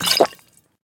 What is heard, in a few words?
drink, witch; Minecraft; Votive World